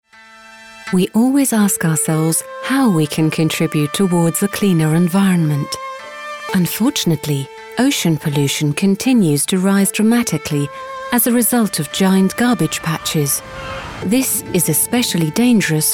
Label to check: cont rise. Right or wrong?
Wrong